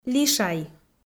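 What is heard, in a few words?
"лишай" Komi, female; kpv audio dict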